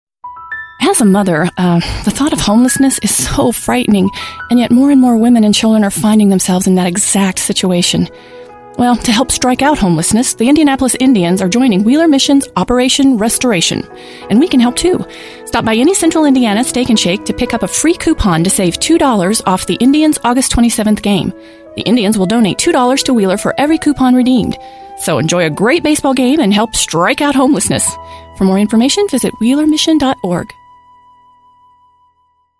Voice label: Radio spot